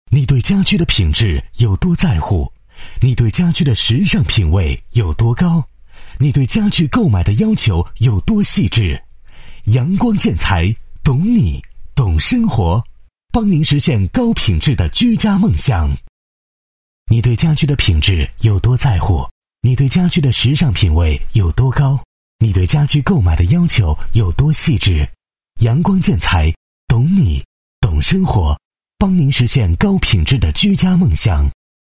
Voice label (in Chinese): 男 宣传广告, 建材宣传广告; 阳光